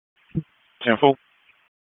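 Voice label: Police Dispatch, p25; wake p25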